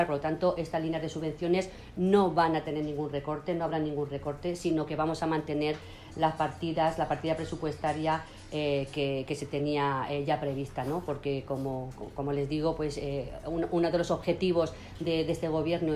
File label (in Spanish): Declaración en Radio